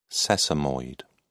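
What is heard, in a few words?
"sesamoid", British English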